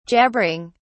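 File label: "jabbering", British English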